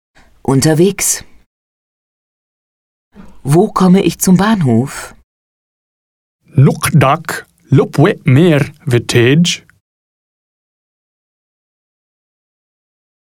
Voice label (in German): klingonisch Probe; Sprachen lernen